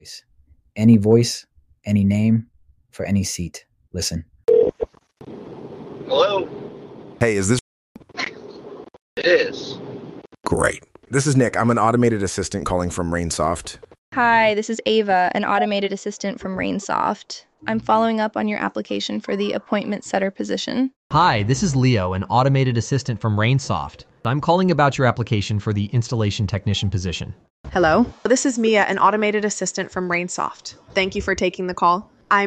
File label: real calls